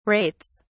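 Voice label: "rates", British English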